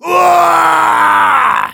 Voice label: battlecry, de; Heavy

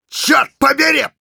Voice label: negative vocalization, ru; Engineer

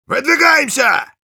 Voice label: Engineer battlecry, ru

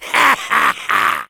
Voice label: battlecry, fr; Soldier

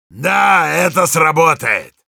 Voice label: positive vocalization, ru; Heavy

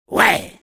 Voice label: positive vocalization, fr; Soldier

